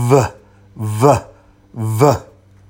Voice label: V Voiced